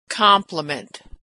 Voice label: "compliment", pronunciation